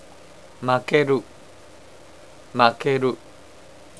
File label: lose, japanese